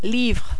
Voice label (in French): "livre", French